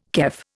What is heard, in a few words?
"kef", Indian